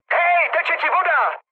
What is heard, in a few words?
damaged water, speech cze, mood high; War Thunder